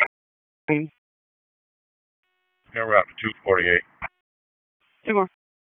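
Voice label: Police Southwest District Dispatch; Raleigh; wake p25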